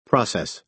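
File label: "process", American English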